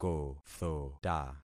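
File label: "kothota", concatenated syllables; Affixing experiment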